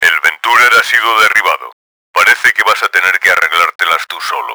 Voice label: carrier dies, Spanish; Battle Engine Aquila; XBOX

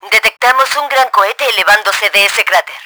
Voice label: rocket launched, Spanish; Battle Engine Aquila; XBOX

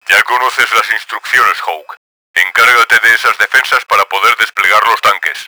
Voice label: clear defences, Spanish; Battle Engine Aquila; XBOX

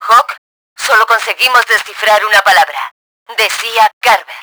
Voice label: hawk, Spanish; Battle Engine Aquila; XBOX

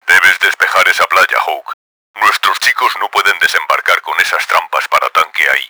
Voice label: clear beach, Spanish; Battle Engine Aquila; XBOX

